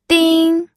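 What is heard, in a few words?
"ding", pinyin, first tone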